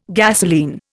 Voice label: "gasolene", Indian